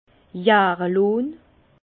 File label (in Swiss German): "jahre lonn", Bas Rhin; vin; cuvaison